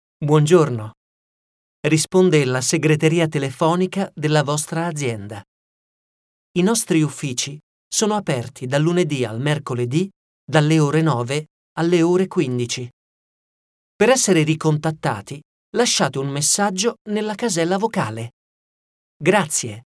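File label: italian interactive voice response; hablante nativo de italiano; actor profesional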